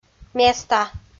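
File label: "места" Komi, female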